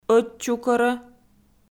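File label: "ӧтчукӧрӧ", Komi, female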